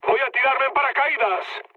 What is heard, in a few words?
bailout, mood med, speech; War Thunder